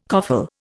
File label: "coffle", Indian